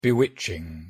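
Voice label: "bewitching", English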